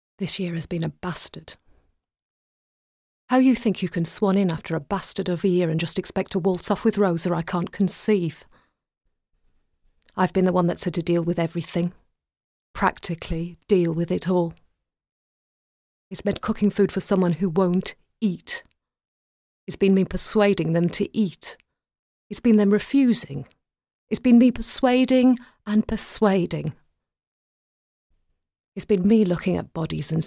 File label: Dramatic Reel